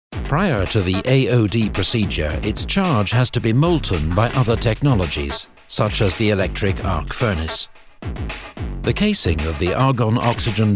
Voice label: industrial explainer

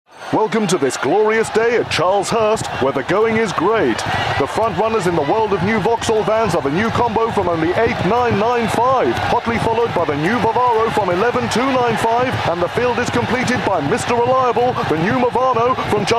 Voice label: horse racing commentator

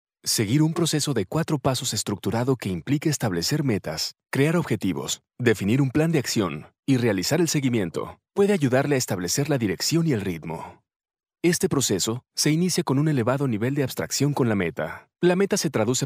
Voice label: latin spanish male corporate narration

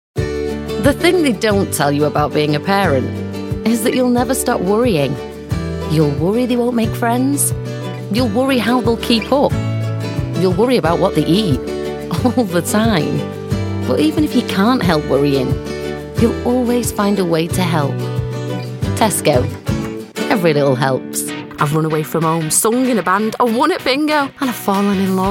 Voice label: new commercial

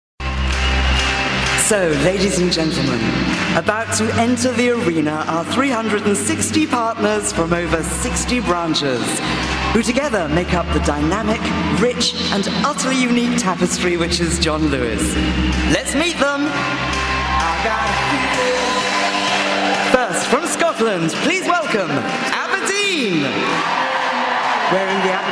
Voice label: live announcing stadium show

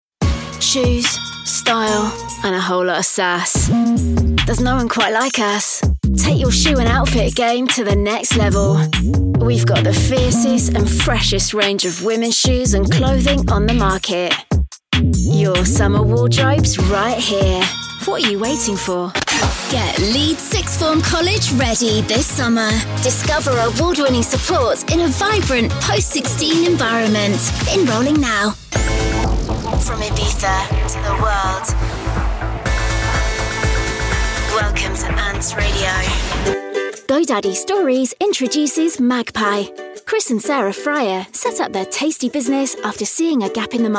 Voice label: commercial

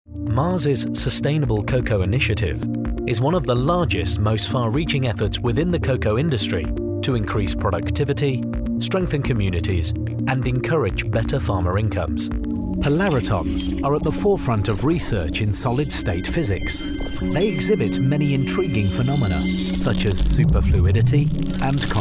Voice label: corporate narration

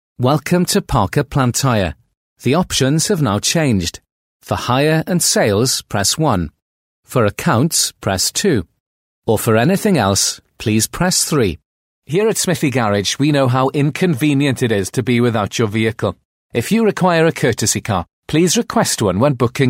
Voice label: welsh male welsh accent ivr telephone

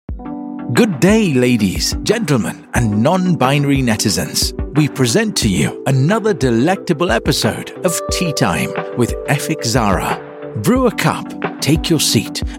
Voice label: british male voice continuity radio

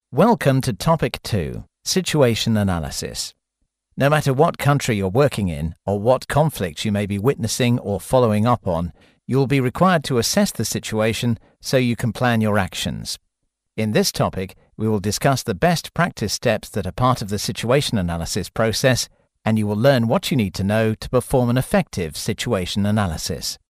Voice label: e-learning

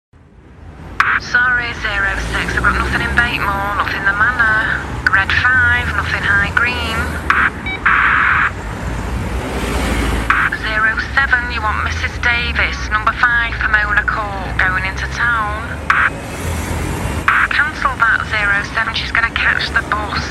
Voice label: northern

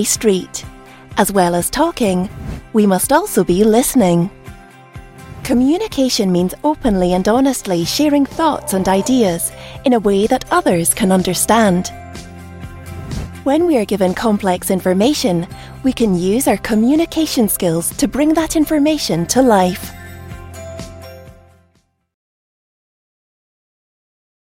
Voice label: e-learning communicating

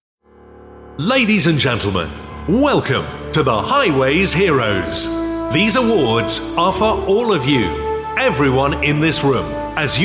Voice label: voice of god

